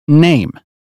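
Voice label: "name", British phonetics; 英语音标发音（剑桥版）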